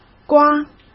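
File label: "刮" Mandarin Chinese; hsk; chinese-flashcards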